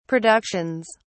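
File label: "productions", English, British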